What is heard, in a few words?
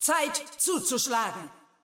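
German; 索杰恩（守望先锋2）; 终极技能; 主动/敌方释放语音; Zeit, zuzuschlagen!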